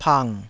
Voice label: "pang3", taiwanese

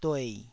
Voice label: "duei3", taiwanese syllables

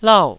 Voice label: "lau4", Taiwanese syllable

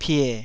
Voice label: "pie3", taiwanese

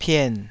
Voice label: "pien3", taiwanese

